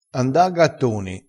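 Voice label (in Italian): "gattoni", dialettale crespinese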